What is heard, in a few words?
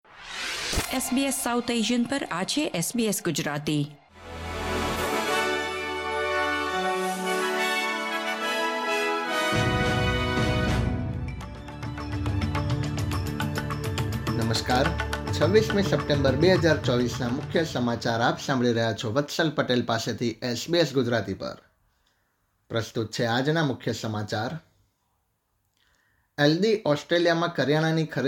gujarati news bulletin; ૨૬ સપ્ટેમ્બર ૨୦૨૪ના મુખ્ય સમાચાર; SBS Gujarati